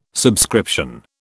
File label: "subscription", British